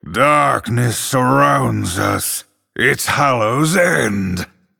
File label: Male Night Elf HAPPY HALLOWEEN